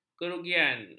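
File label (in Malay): "kerugian", Malay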